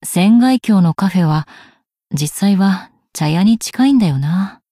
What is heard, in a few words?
Cafe monolog; BA; Mina